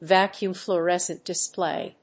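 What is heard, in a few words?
"Vacuum fluorescent display", English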